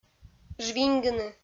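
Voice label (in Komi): "жвиньгыны", Komi, female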